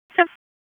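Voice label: Dispatch 1, P25; wake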